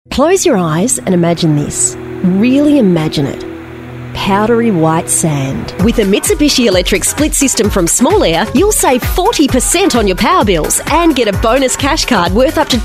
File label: female talent retail